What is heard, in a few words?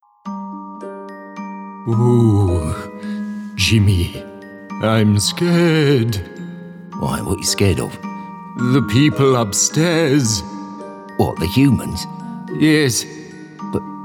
Scary/Halloween, Character, Spooky, Promo, Dark, Gravitas, Confident, RP ('Received Pronunciation')